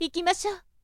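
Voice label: "ikimasyo", Japanese, woman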